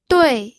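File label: "dui", pinyin, fourth tone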